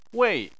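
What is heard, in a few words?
"wei", Chinese, fourth tone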